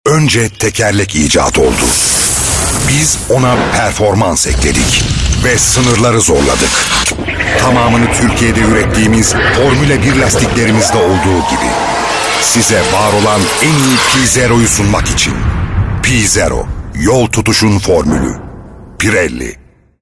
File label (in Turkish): reklam; pirelli